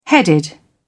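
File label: "headed", British English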